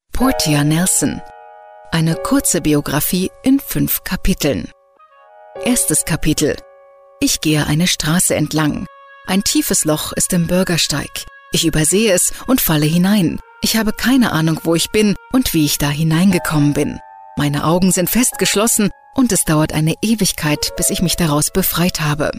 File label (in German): Kommentar Dynamisch